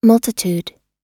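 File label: "multitude", American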